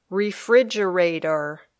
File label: "refrigerator", English